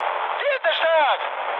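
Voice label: German bomb success mood high, speech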